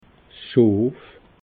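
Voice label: "schof", Alsatian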